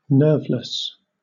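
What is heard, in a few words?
"nerveless", English, British accent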